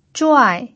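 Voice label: "zhuai4", Mandarin Chinese pinyin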